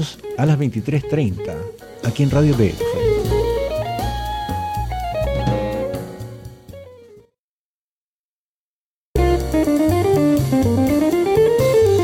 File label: promo jazz